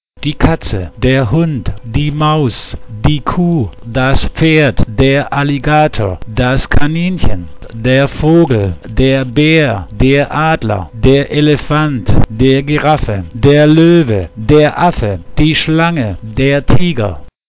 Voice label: german; animals

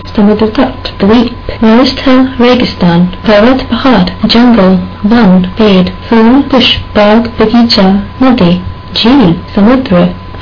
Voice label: hindi nature

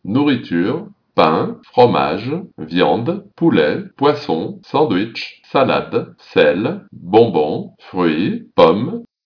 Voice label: french; food

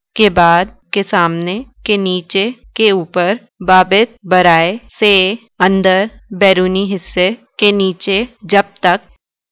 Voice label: Urdu words

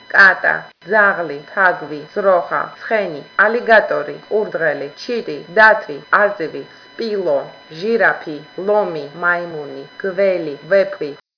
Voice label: georgian; animals

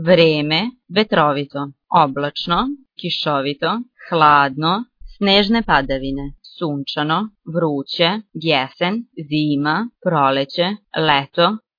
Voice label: bosnian; weather

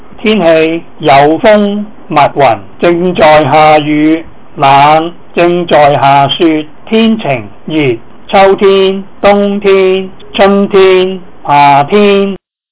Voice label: cantonese weather

